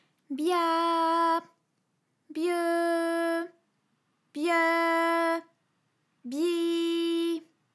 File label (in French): bya bye byeu byi, vocal library, FRA